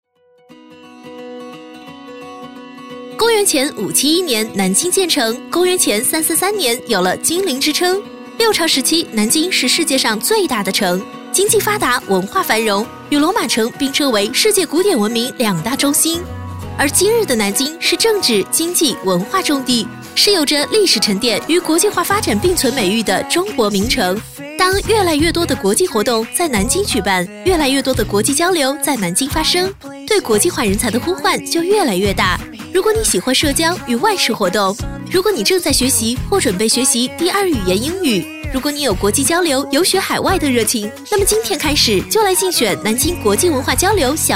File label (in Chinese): 国语女声配音; 南京外交小大师; 多风格